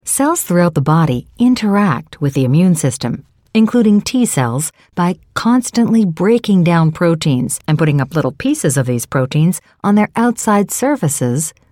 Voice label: Ingles USA Canada Voz femenina media

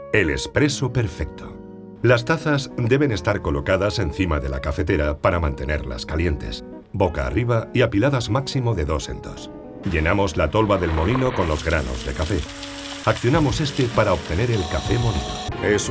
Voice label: voz masculina grave

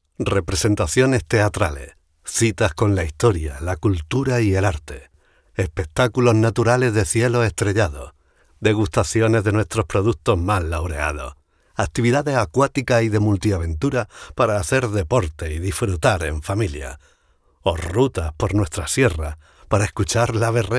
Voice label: Andaluz Voz masculina media

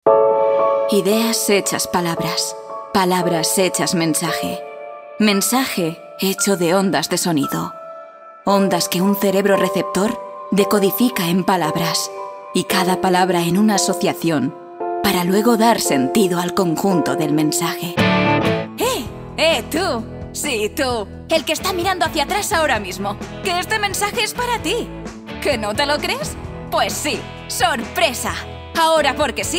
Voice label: voz femenina juvenil

